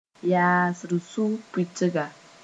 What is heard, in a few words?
"yaasr sʋg-pʋɩtga", Moore